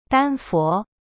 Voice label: "丹佛", Chinese; dan fo; cyberchinese

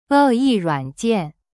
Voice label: "恶意软件" Chinese; e yi ruan jian

